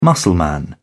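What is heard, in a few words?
"muscleman", British English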